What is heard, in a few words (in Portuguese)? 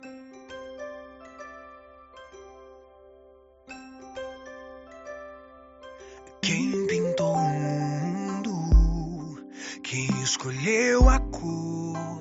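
mãe gospel female; Aniversário de Mãe Gospel – Voz Feminina; Telemensagens para todas as ocasiões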